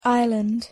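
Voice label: "island", English; pronunciation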